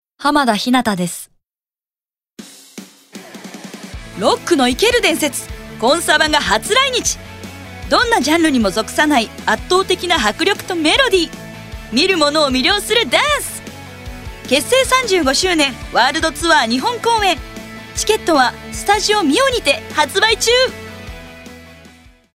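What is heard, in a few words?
commercial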